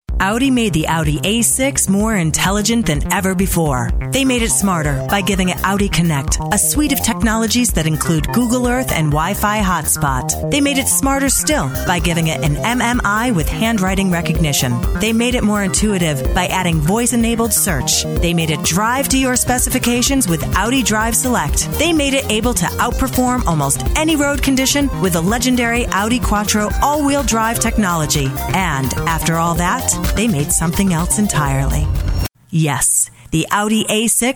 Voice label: english us and canada female commercial